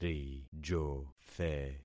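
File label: "rijothe", concatenated syllables; Affixing experiment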